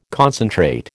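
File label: "concentrate", American English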